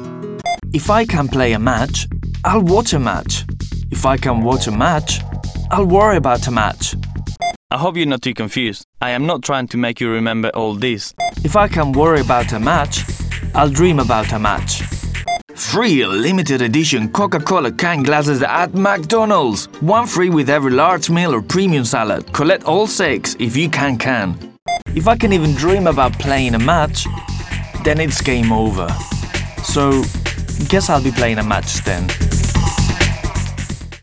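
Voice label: European Spanish accented English; GoLocalise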